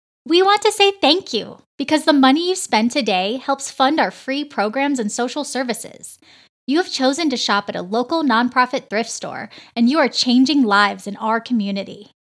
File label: Voice Promo